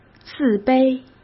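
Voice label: "自卑", Mandarin Chinese; hsk; chinese-flashcards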